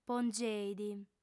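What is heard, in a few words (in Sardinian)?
"pongeit", female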